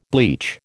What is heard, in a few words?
"bleach", American